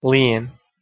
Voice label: "lijen" Bosnian

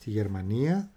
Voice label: Male conversational Athens

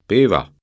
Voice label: "pevar", Breton

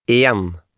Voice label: "en", Norwegian

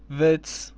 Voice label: "vets", Armenian

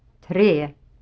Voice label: "tre", Swedish

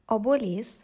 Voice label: "obuolys", Lithuanian

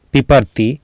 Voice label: "piparti", Sanskrit

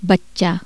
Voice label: Hindi child

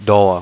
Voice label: "doua", Romanian

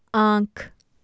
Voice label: "aanhk" eye, Urdu, F

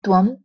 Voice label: "twam", Sanskrit

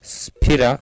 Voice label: "sphira", Sanskrit

